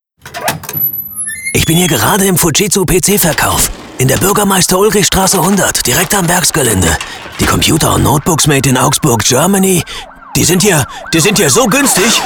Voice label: Sprecher werbung; einbrecher

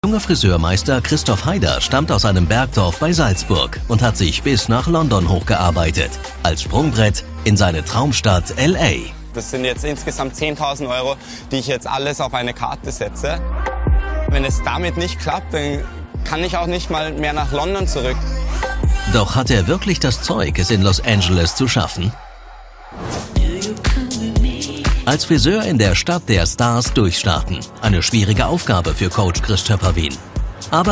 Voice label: dokusoap; auswandercoach

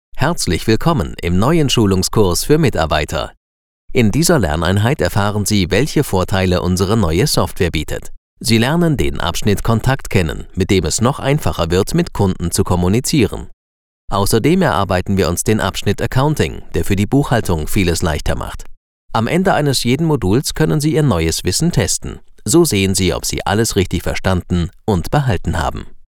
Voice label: Sprecher elearning schulungskurs deu

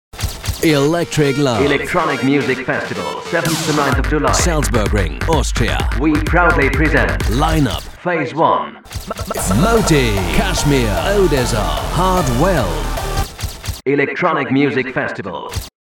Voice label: Sprecher werbung

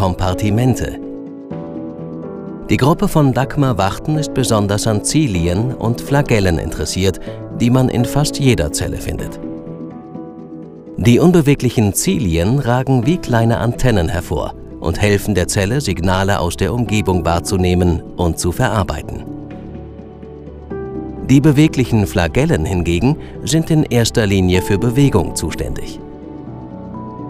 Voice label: doku wissenschaft zellen

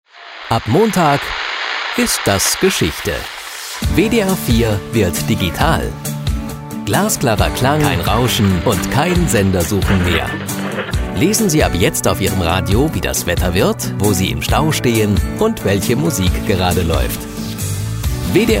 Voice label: trailer digitalradio

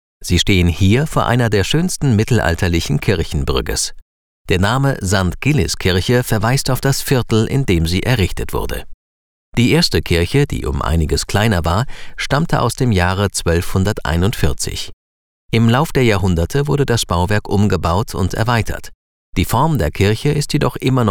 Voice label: audioguide; bruegge

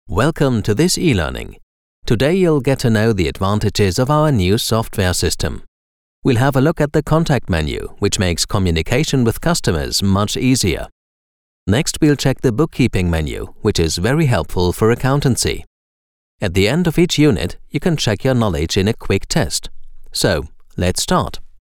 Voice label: Sprecher elearning schulungskurs eng

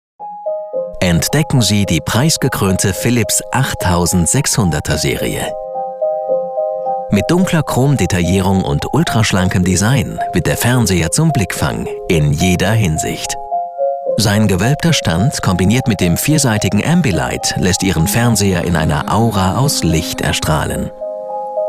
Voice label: sprecher produktfilm; philips amiblight

